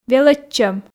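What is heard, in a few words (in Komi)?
"велӧдчӧм", Komi, female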